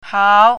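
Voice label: "hao2", Mandarin Chinese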